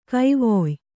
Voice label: "ಗವಯ", Kannada

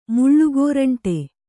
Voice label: "ಮುಳ್ಳುಗೋರಂಟಿ", Kannada

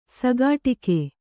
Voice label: "ಸಿಕ್ಕಟ್ಟಿಗೆ", Kannada

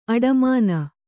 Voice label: "ಆಡುಮನೆ", kannada

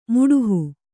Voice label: "ಮಾಡುಹ", Kannada

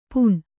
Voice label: "ಪೂಣ್", Kannada

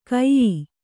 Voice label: "ಕೈಯೀ", Kannada

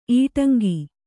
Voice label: "ಇಟ್ಟಂಗಿ", Kannada

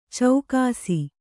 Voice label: "ಚೌಕಾಸಿ", Kannada

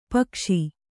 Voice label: "ಪೀಕಿಸು", Kannada

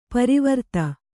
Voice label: "ಪರಿವರ್ತ", kannada

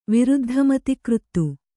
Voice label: "ವಿರುದ್ಧಮತಿಕೃತ್ತು", Kannada

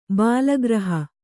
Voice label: "ಬಾಲಗ್ರಹ", Kannada

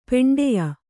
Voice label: "ಪಿಂಡಾಯು", Kannada

